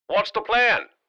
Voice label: Military radio voice question whats the plan